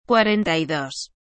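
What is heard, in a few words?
"cuarenta y dos", Spanish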